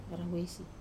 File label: "rawesi" side, Wamesa